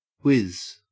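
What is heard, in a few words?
"whiz" English, Australian; pronunciations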